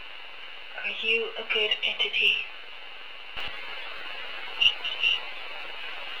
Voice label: speak to me, evps